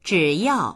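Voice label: "zhi3yao4", Mandarin Chinese; 只要; …さえあれば; dictionary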